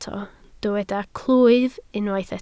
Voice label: "clwyf", Welsh

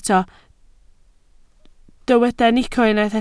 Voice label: "nico", Welsh

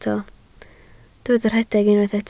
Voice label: "rhedeg", Welsh

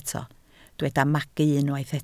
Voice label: "magu", Welsh

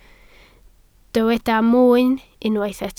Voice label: "mwyn", Welsh

